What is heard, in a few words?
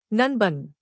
"nanban", tamil; texttoSpeech